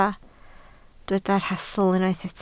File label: "rhastl", Welsh